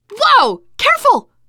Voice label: team kill accident, teengirl; player; survivor; voice

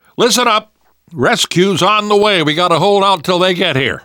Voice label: radio used generic, namvet, survivor voice; Left 4 Dead 2; left4dead2 dlc2

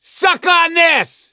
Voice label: battlecry, survivor voice; gambler

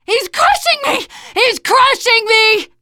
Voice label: tank pound, teen girl; player; survivor; voice

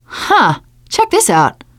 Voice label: look here, teengirl; player; survivor; voice

